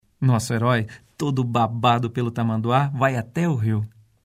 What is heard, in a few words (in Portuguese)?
narrador